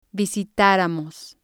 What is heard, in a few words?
"visitaramos", Spanish